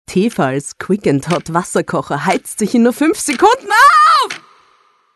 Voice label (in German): Werbung; Tefal